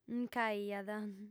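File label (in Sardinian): "nc'aiat", female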